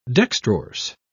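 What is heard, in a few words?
"dextrorse", British English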